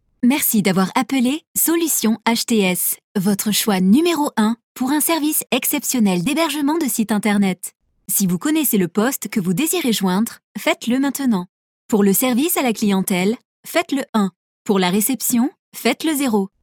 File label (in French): French PAR native